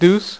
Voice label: "DEUCE", Dealer's Speech